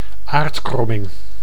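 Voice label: "aardkromming", Dutch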